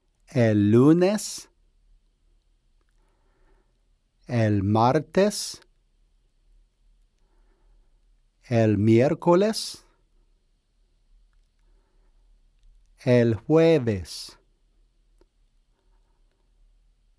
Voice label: Los Dias Pronunciation